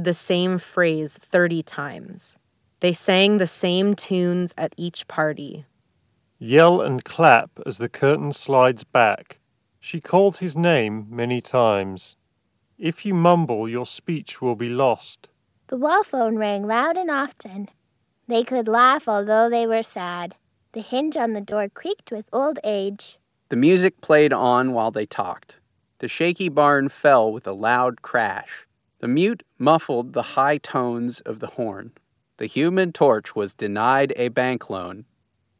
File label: Shure Aonic 40 Ideal microphone